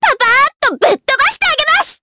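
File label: Vox Skill Japanese; Dosarta; kingsraid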